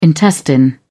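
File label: "intestine", British English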